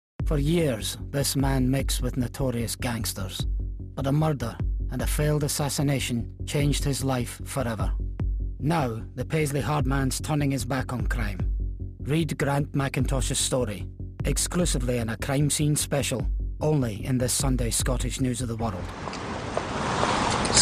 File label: Commercial Compilation